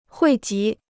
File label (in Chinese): "汇集", Chinese